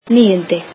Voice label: icelandic ninth